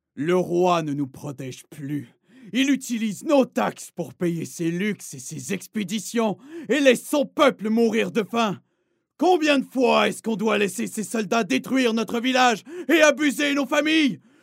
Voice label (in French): jeu video personnage fictif fr normatif affirme energique adulte medium